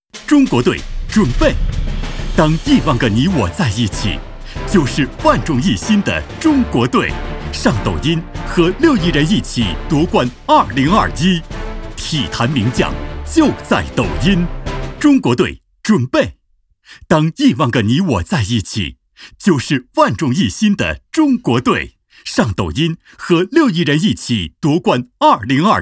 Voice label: 男 专题 激昂 大气; 中国队

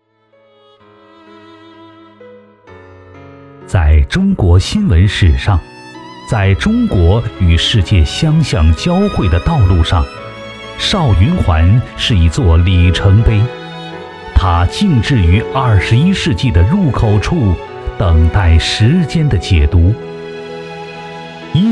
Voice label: 男 人物专题 新华记着

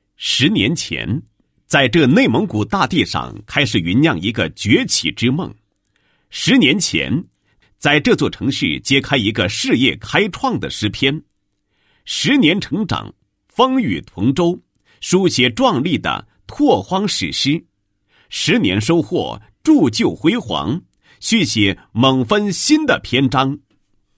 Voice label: 男, 保险企业; 合众人寿10周年

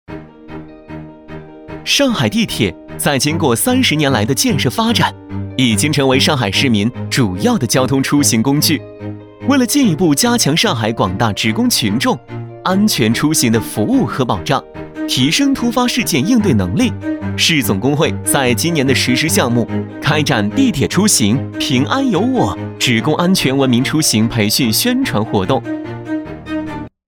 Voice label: 男 宣传 地铁; 上海地铁

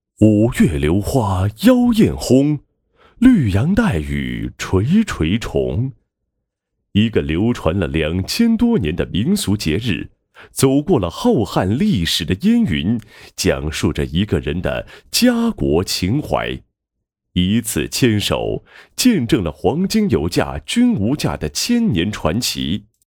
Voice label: 端午诗会配音

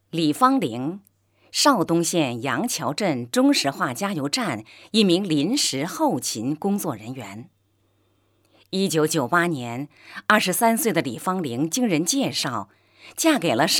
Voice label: 人物类, 国语女声配音; 最美母亲李芳玲

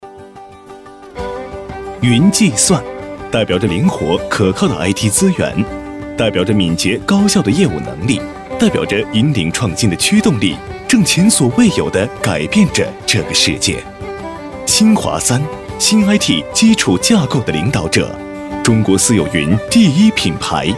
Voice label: 男 专题 科技感; 云计算

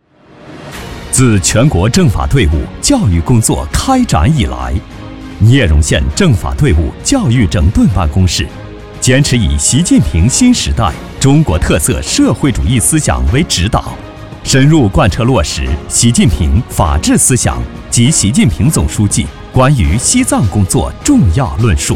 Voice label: 男 专题 大气稳重 政法专题片

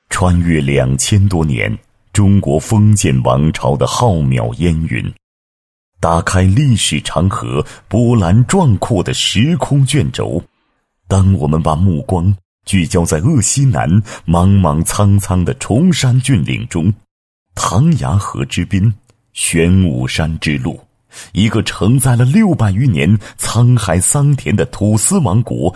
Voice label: 男161-历史文化专题, 宣传介绍; 唐崖土司城遗址宣传介绍

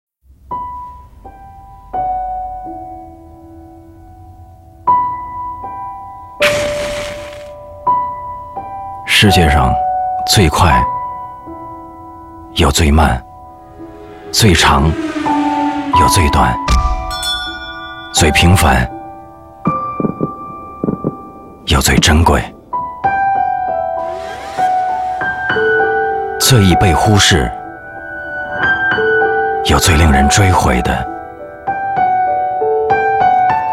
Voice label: 男 广告 品牌广告, 国语男声配音; 何以解忧 唯有杜康

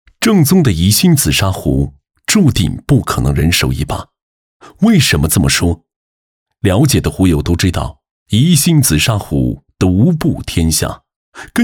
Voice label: 男 专题 自然讲述

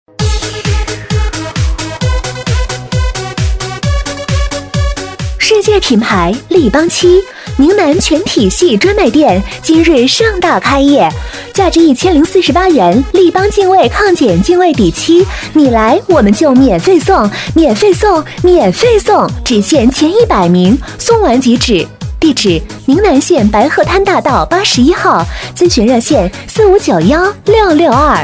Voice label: 女 促销; 世界品牌立邦

